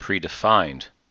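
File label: "predefined", us English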